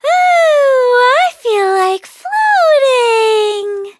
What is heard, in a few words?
level up, en